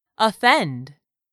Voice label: "OFFEND", English; 3000 words